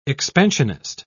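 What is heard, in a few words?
"expansionist", British English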